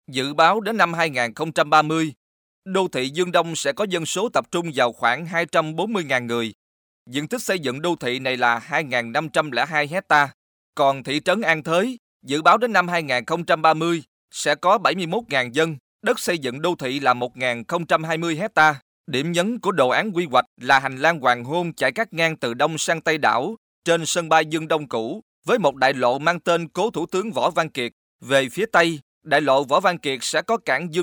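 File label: Vietnamese Vietnam male narrative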